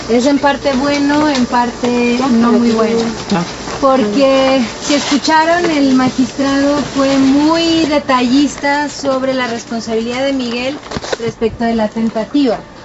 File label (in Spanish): Declaracion abogada; Por La Libertad De Miguel Peralta